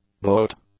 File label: "boat", English; nouns